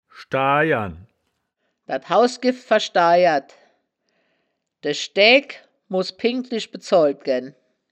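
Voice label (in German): "schdaai-jern", Wittlicher Wörterbuch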